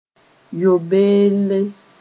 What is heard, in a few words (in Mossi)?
"yobelle", moore